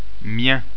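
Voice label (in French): "mien", French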